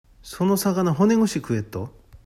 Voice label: 「ぐし」, 旭弁 銚子弁; 〜ごと; 語尾によく付くことば; 「そのさがな骨ぐし食えっと」; （訳） その魚骨ごと食べられるよ

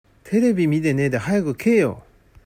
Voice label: 「けー」, 旭弁 銚子弁; 食え; 「テレビみでねーではやぐけえよ！」; （訳）テレビ観ていないで早く食えよ！

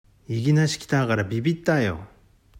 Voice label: 「いぎなし」, 旭弁 銚子弁; いきなり; いぎなし来たーがらびびったーよ」; （訳）いきなり来たからビックリしたよ

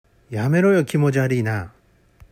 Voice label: 「きもぢゃーりい」, 旭弁 銚子弁; 気持ち悪い; 「やめろよきもぢゃーりーなぁ」; （訳）やめろよ気持ち悪いなぁ

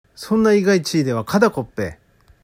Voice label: 「ちぃ」, 旭弁 銚子弁; 胸/バスト/乳; 「そんないがいちぃではかだこっぺぇ」; （訳）そんな大きいバストでは肩こるでしょ

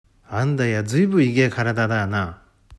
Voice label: 「いげえ」, 旭弁 銚子弁; デカい; 大きい; 「あんだやずいぶいげぇ体だーな」; （訳）なんとまぁ随分と大きい身体だね

